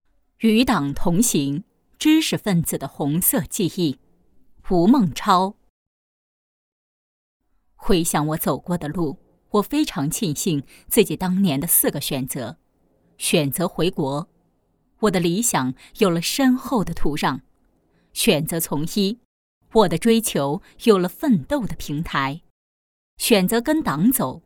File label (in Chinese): 女 人物; 知识分子的红色记忆